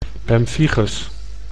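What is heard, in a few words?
"pemphigus", Dutch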